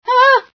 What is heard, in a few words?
female sci fear